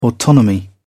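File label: "autonomy", English, British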